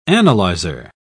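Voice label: "analyser", British English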